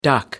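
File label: "duck", English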